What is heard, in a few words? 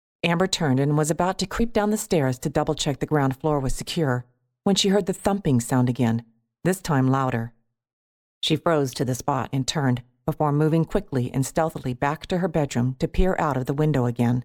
Science Fiction F Narrator